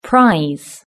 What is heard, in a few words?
"prize", british english